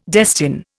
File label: "destine", Indian